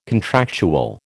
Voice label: "contractual", American